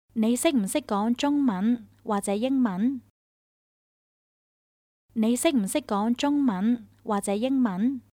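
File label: Can you speak Chinese English, Chinese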